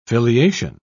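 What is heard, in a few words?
"filiation", English, British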